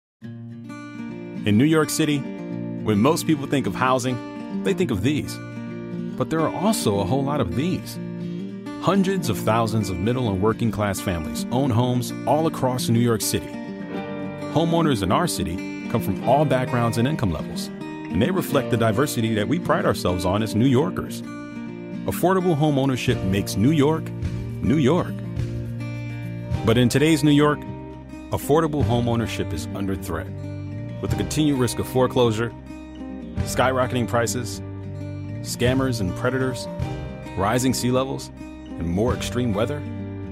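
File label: English US, Corporate; GoLocalise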